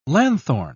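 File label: "lanthorn", British English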